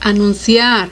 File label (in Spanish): "anunciar", Spanish